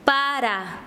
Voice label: Chanka, quechua; lluvia

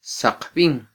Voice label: "saqbin", mam

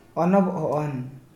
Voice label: Gutob Adolescent female

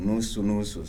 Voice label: "small pieces", Kibembe

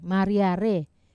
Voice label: "maria re", Wamesa

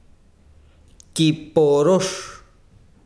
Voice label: besiro barriga, chiquitano

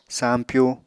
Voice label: "sampyu", Apatani

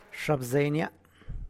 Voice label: my deer, San Lucas Quiaviní Zapotec; xabzeinya; mi venado

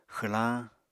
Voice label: "xwlañ", Apatani

